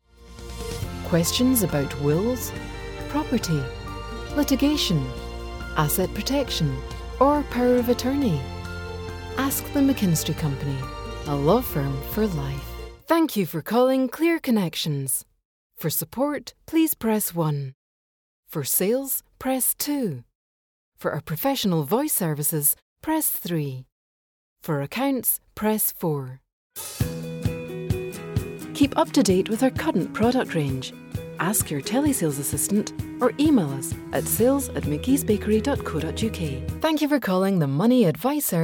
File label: On Hold IVR